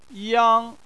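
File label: "yang", Chinese, first tone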